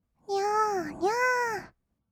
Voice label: にゃーにゃー, kawaii voice; ASMR; 色々なワンショット; 1200 kawaii voice!!

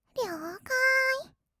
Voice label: "りょーかーい", kawaii voice; 1200 kawaii voice!!; 色々なワンショット

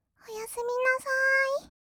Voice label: おやすみなさい, kawaii voice; 挨拶

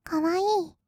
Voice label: "かわいい", kawaii voice; ASMR; 色々なワンショット

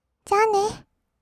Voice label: じゃあね, kawaii voice; ASMR; 挨拶; 1200 kawaii voice!!

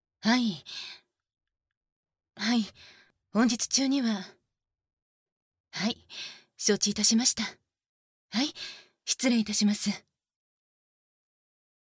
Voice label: 耳舐め乳首ハラスメント; ASMR

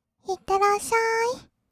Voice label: いってらっしゃーい, kawaii voice; ASMR; 挨拶; 1200 kawaii voice!!

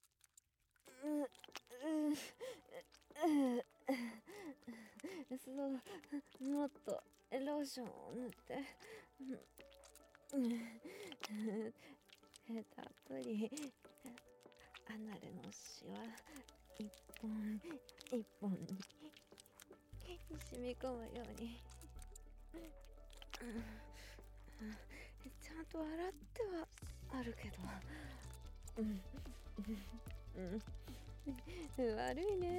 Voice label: アナルマッサージ＆歯磨き粉塗り塗り BGM効果音入り, ASMR; 切り抜き

